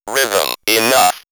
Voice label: Robot Rhythm Enough!, robot vox; X-Static Goldmine

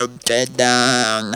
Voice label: "get down", Funky Vocals; USB Soundscan vol.18; AKAI

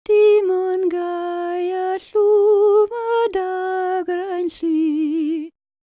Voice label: WELSH F, World Voices

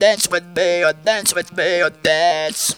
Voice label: "dance with me", Funky Vocals; USB Soundscan vol.18; AKAI

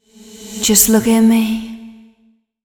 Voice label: fem spo, Vocals; Mutekki Media; Diamond Vocals 2

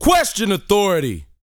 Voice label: TALK F; ILIO; Vocal Planet

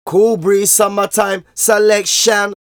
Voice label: Vocals; Jungle Frenzy 1

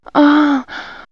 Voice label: Men Women Animal Magic, vox; X-Static Goldmine

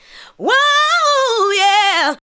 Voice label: ADLIBS 90; Ueberschall; Jam Box

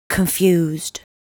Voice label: "Confused", vocal phrases; Ultimate Vocals

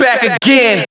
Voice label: Vocals

